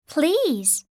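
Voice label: "please", English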